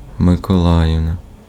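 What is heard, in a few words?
Ukrainian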